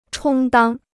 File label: "充当", Chinese